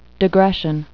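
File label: "digression", English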